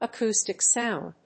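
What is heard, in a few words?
"acoustic sound", English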